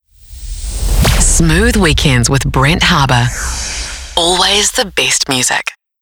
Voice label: English New Zealand radio imaging; voice actor